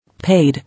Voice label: "Paid", English